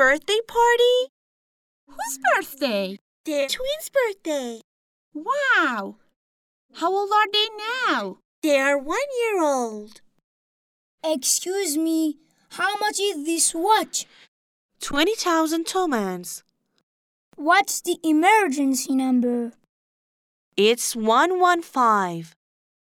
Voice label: conversation